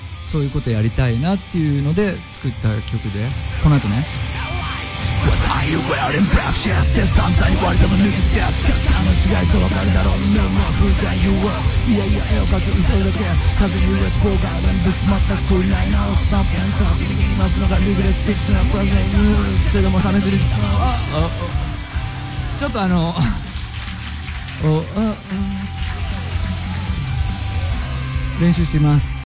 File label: rap